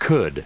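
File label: "could", US English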